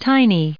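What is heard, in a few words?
"tiny", English, us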